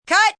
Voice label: Cut, Film, movie, direction, director, female 'Cut', (human voice speaking); Talking; VoiceBox